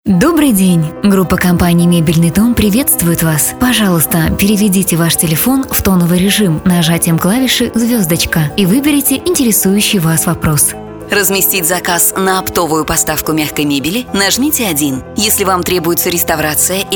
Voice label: IVR

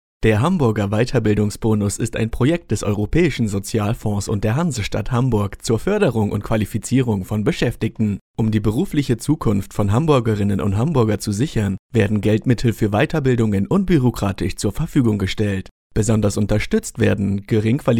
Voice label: German male

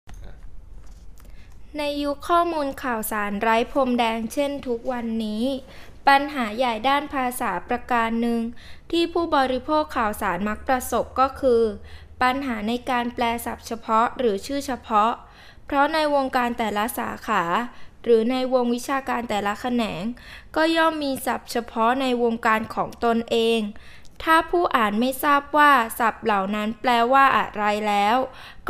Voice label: Thai female

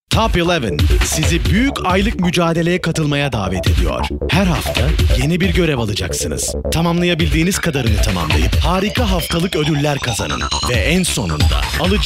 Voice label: Turkish male

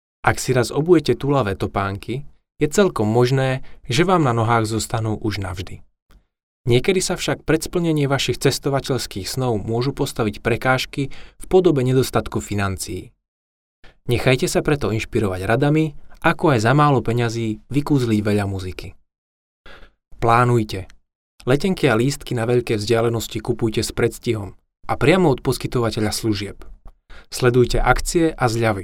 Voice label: Slovak male

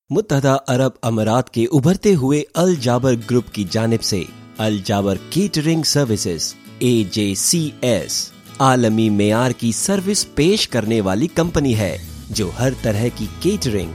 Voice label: Urdu male

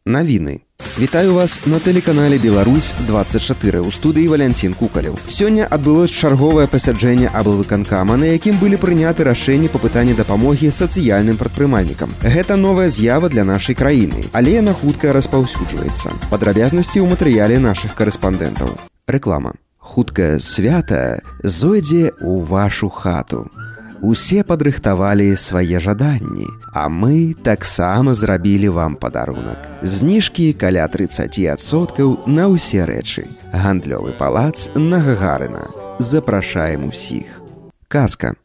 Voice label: Belarusian male

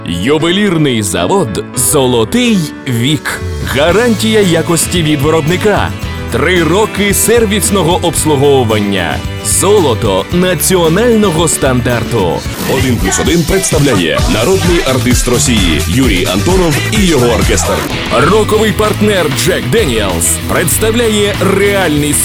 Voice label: Ua, golosa treki

